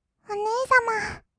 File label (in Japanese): お姉様, kawaii voice; ASMR; 二人称